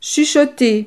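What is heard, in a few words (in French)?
"chuchoter", French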